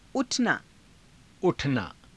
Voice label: "u thanaa", hindi; उठना; [自動]起きる，持ち上がる

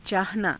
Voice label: "caahanaa", Hindi

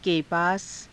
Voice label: "ke paasa", Hindi

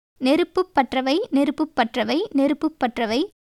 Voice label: Tamil

